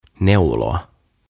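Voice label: "Neuloa", Finnish; Knit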